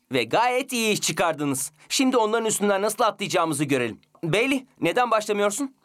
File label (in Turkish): cocuk